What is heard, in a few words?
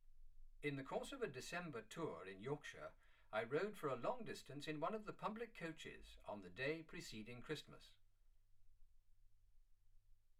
male speech english